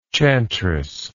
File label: "chantress", English